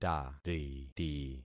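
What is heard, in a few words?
"tariti", concatenated syllables; Affixing experiment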